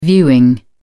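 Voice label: "viewing", British English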